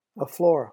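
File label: "a floor", English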